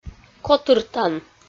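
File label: "котыртан", Komi, female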